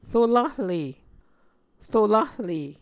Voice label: "rolahle", Muscogee

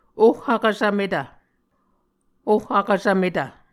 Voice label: "oh vkvsvmetv", Muscogee

